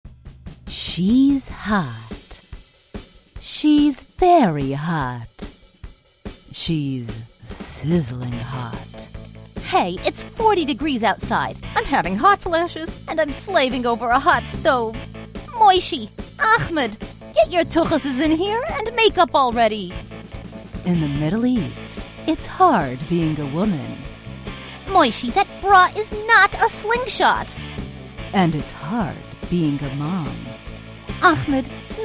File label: Trailer; Middle Eastern Mishpocha